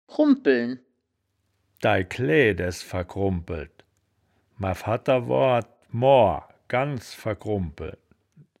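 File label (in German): "krumb pelisch fragen", Wittlicher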